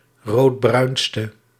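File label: "roodbruinste", Dutch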